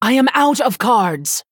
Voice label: Female Human No Cards

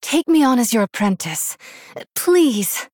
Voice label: Human Female; Story Hero Jaina; Story Jaina Mission1 Intro

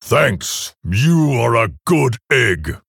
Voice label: Male Orc Thanks

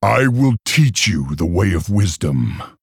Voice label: Male Orc Start

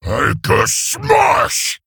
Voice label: Male Ogre Attack

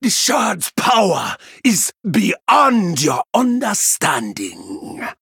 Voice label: Male Troll Emote Response

